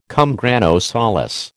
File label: "cum grano salis", American